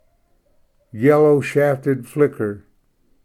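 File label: "yellow shafted flicker", English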